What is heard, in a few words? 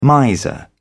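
"miser", British English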